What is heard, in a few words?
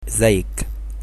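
how are you, Egyptian Arabic; f - (izayyik) إزّيّك؟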